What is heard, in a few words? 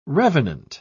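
"revenant", British English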